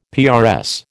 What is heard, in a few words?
"PRS", American